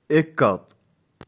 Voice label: "Ekad", Touareg; Grotte

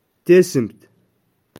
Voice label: "Tesamt", Touareg; Sale

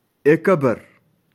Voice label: "Ekabar", Touareg; Maison traditionnelle Touareg

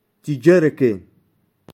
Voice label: "Tijaraken", Touareg; Nuvole

